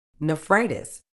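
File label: "nephritis", British English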